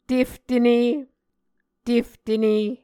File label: "teftene", Muscogee